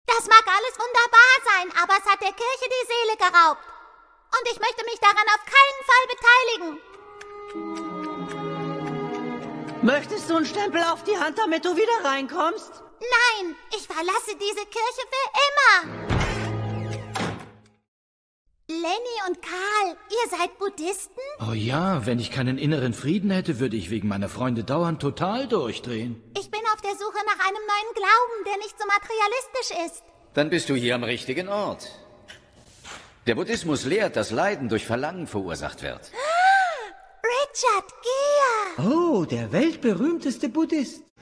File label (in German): Parodie Imitation Cartoon IMITATION PARODIE CARTOON; Lisa Simpson